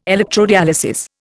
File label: "electrodialysis", Indian